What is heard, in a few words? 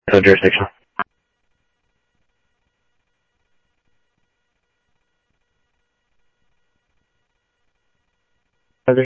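Sheriff West County, scanner audio trunk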